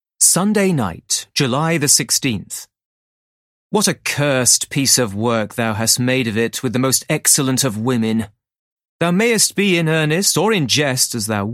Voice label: RP

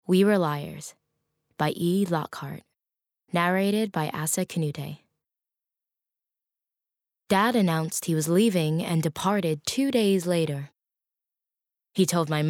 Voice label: Bookread; WeWereLiars

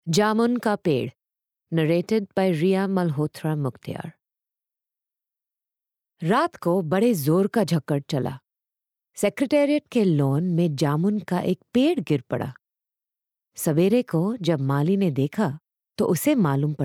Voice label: Hindi

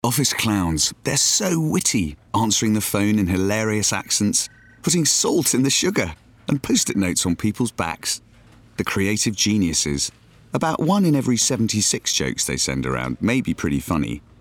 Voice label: VO Reel; Microsoft

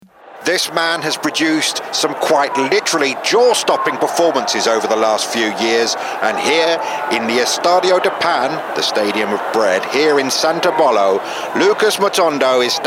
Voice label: Sports Commentator

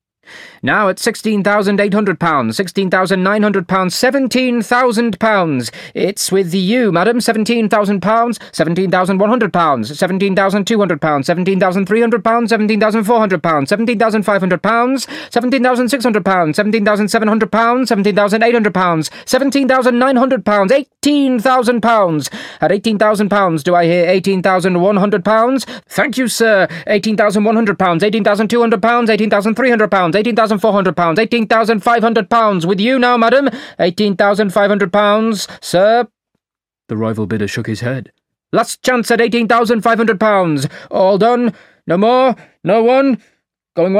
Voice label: auctioneer

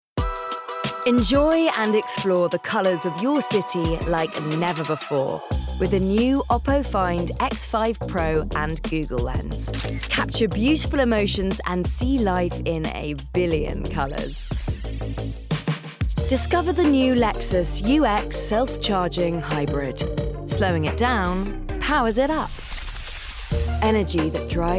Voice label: Commercial

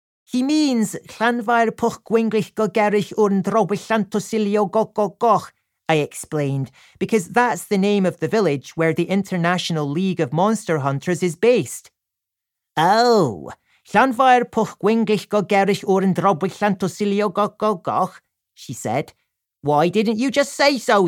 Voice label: Scottish

